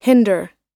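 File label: "hinder", American English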